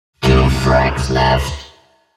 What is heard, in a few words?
2 frags left, robotic announcer